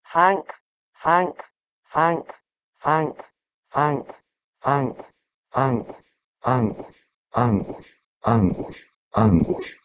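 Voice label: Proto-Indo-European h2enk to Ancient Greek angos; *h₂enk- [ħaŋk]; ἄγκος angos; “a bend”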